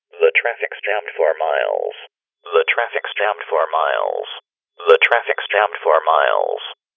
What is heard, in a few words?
Traffic's Jammed US AM DJ Voice